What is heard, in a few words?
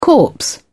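"corpse", British English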